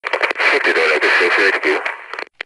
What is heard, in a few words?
cover up, grunt; svencoop; st allies; nimble grunt